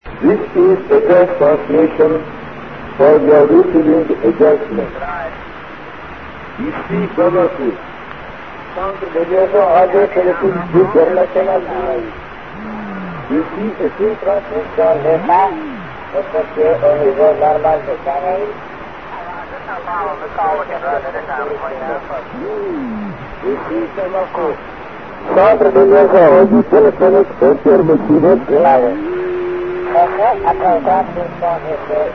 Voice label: usb